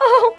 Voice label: "oh oh oh" female

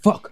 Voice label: "fuck", expletives

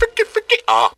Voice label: "fricky fricky ah", voice

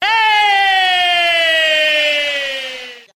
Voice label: "hey" LONG; Voice; ooh ahh

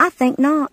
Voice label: "i think not", female

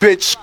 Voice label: "bitch", expletives

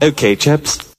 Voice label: "okay chaps", expletives

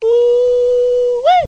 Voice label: "ooh wee" male

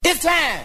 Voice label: it's time male

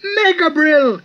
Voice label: megabrill, gibbrish; Voice

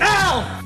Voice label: ow male

